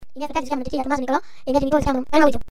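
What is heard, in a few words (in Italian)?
Più veloce della luce (effetto time-stretch), Frase modificata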